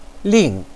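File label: "ling", Mandarin Chinese, fourth tone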